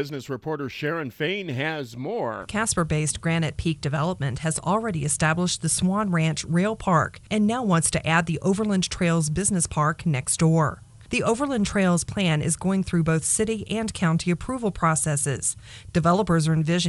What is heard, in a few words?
wyoming radio news